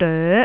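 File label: "doe2", Cantonese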